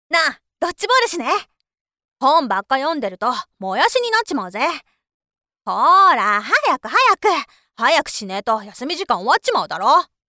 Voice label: cheerful boy